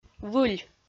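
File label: "выль", Komi, female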